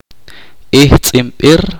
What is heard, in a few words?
"hermano menor", chorti